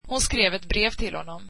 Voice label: swedish she wrote him a letter

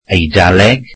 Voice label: welsh Italian

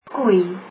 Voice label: cow, afrikaans

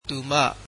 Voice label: "she", Burmese

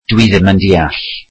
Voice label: welsh I dont understand

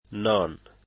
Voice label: "bread", dari

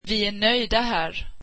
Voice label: we are happy here, swedish

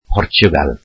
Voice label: welsh Portugal

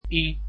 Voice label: "i", dutch alphabet

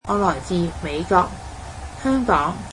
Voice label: cantonese Im from the US

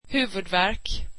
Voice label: swedish headache

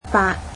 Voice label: "eight", Cantonese

